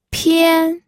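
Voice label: "pian", Mandarin Chinese, first tone; pinyin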